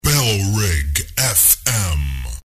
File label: male distort, no bed; bailrigg fm